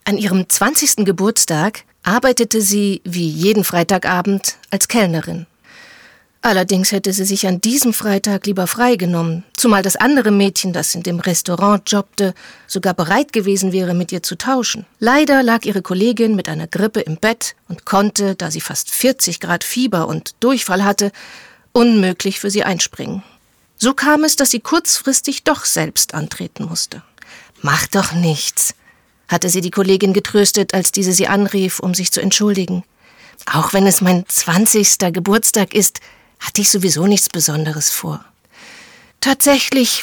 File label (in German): Hoerbuch; Geburtstag